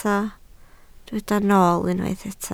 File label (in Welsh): "nool" Welsh